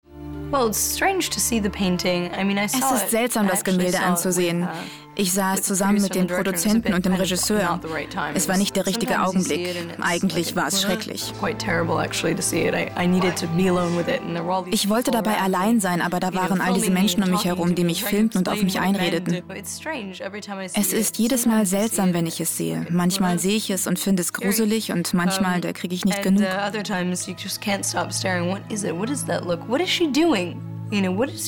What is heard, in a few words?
voice over